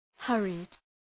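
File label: "hurried", English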